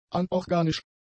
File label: "anorganisch", German; adjectives